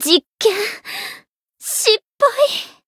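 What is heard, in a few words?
Voice retire, Japanese; pom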